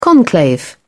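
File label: "conclave", British English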